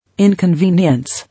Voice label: "Inconvenience", English

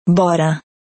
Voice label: "Bare", English

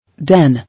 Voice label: "Den", English

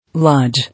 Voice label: "Lodge", English

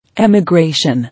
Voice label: "Emigration", English

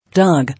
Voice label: "Dog", English

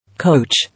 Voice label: "Coach", English

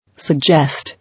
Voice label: "Suggest", English

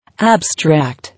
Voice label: "Abstract", English

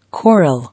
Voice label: "Quarrel", English

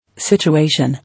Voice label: "Situation", English

